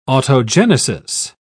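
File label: "autogenesis", British English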